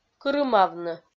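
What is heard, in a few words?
"кырымавны", Komi-Zyrian, female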